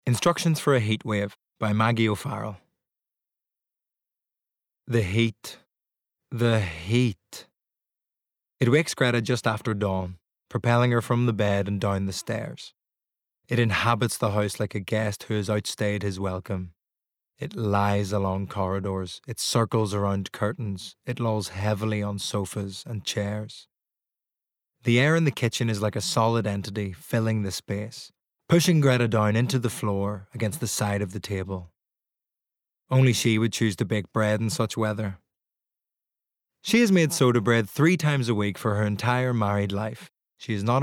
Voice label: Audiobook; Instructions For A Heatwave